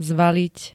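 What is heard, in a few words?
"zvaliť", Slovak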